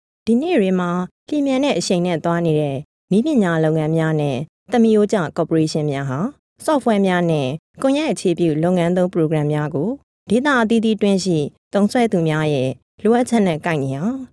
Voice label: Khmer